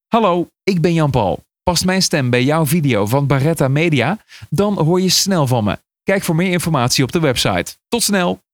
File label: voice-over